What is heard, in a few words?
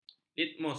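"litmus", Malay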